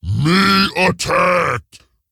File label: Ogre Emote ERROR I ATTACKED; Boulderfist